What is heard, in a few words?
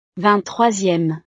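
"ving troisieme", French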